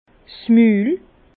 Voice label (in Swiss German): "mul", Bas Rhin; vin; bouche